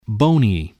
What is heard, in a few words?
"bony", spelling